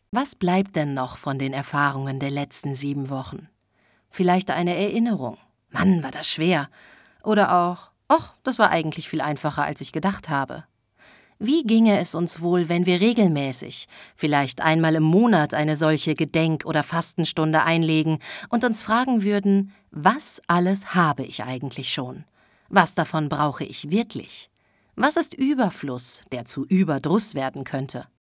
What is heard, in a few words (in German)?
Kommentar